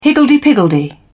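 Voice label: "higgledy piggledy", English, British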